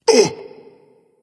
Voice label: surprise, voice; fenrir